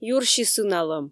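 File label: "юрси сыналӧм", Komi, female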